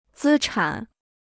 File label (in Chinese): "资产", Chinese